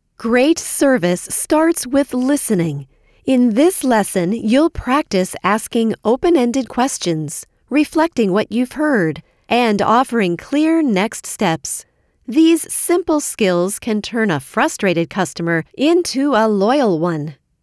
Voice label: Customer Service

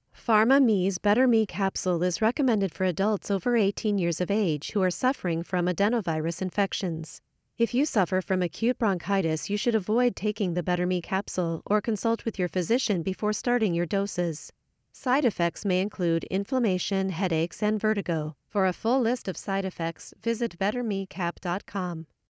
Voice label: Medication Disclaimer

